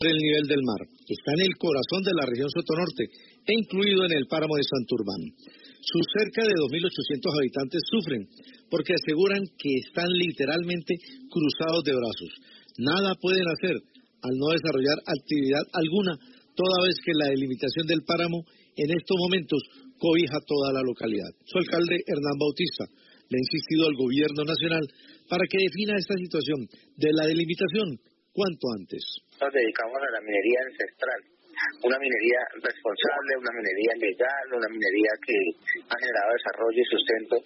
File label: radio; accesoradio